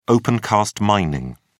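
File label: "opencast mining", British English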